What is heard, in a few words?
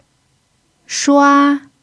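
"shua" first tone, chinese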